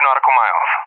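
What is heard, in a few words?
"Nautical miles", English, USA; DCS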